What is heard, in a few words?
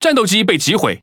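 Speech Cons Pilots Cat Fight Destroyed, Taiwan; World Of Warships; ZH TW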